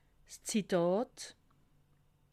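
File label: "zitat", Alsatian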